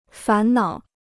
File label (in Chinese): "烦恼", Chinese; fán nǎo; to be worried; to be distressed